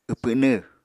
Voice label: "wpwnw", Apatani